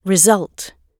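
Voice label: "result", British English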